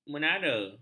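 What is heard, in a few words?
"menara", Malay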